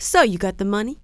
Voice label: got cash, female; kingpin; hiredgirl